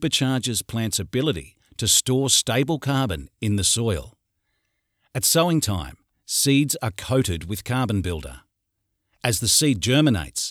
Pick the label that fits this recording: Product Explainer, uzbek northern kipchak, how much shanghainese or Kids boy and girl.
Product Explainer